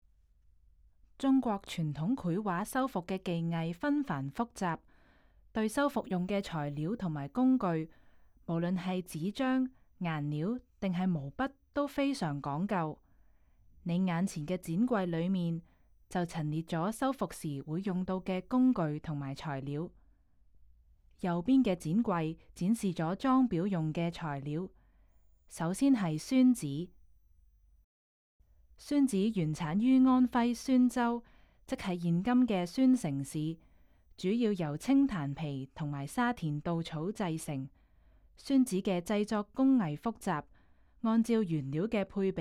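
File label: Cantonese, audio guide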